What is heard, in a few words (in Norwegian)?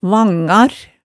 "vangar", hemsedalsmaalet, tale